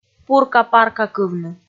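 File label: "пурка-паркакывны", female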